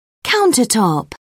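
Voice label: "countertop", British English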